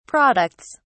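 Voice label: "products", English, British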